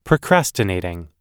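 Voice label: "procrastinating", US English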